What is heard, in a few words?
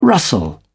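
"rustle", British English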